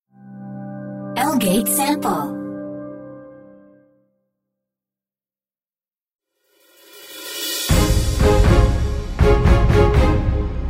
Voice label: 베트남 여 나레이션 밝은 톤

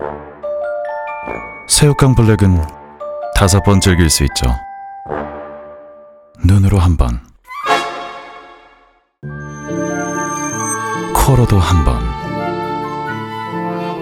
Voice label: 광고 섹시한 시크한 과자

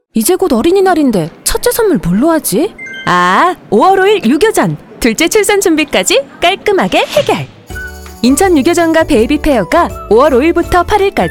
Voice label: 밝은 친절한

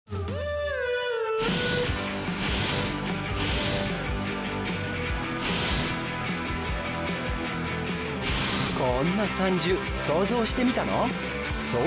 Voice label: 일본 남 밝은톤 관광; 상주시

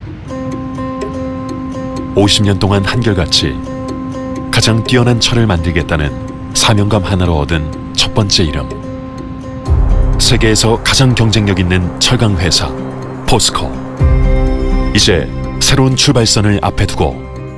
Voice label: 나레이션 진취적인 부드러운 강함; 포스코

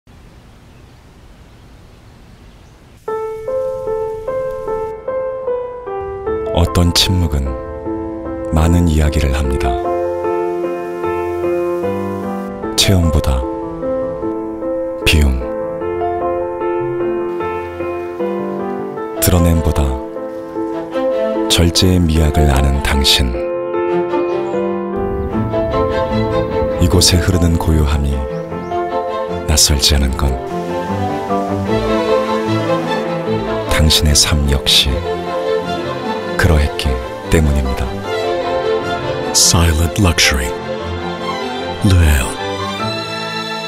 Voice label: 브랜드 홍보영상; 롯데건설 하이엔드 브랜드 르엘(LE-EL)

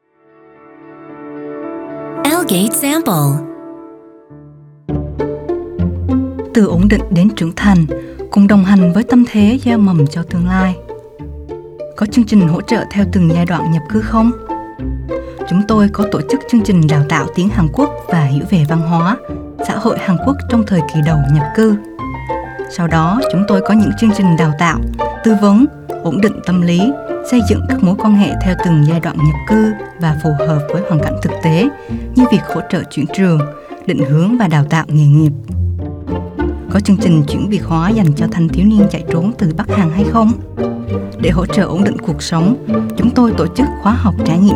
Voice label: 베트남 여 호치민 억양 차분한 톤

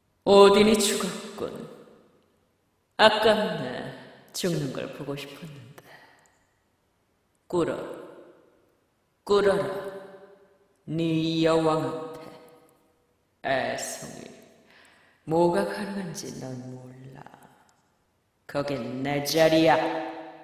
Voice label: 게임샘플용 죽음의 신 30대; 헬라

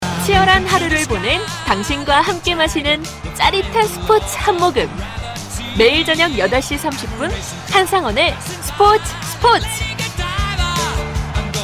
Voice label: 스팟 경쾌한 라디오 프로그램 소개

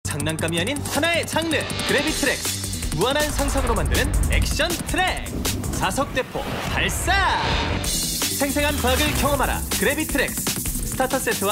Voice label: 광고; 그래비트랙스

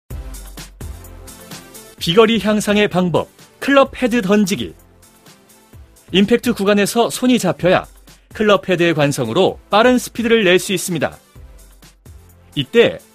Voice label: 힘있고 경쾌한

